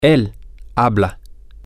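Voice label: Spanish, male; this Is He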